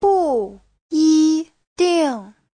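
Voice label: "bùyídìng", Mandarin Chinese; Giáo trình Hán ngữ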